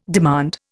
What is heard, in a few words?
"demand", Indian